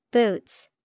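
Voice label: boots, English vocabulary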